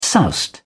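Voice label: "soused", English, British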